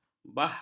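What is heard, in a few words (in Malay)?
"bahkan", Malay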